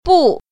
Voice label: "bu4", Mandarin Chinese; 不